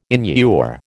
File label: "inure", American